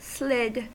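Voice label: "slid", American English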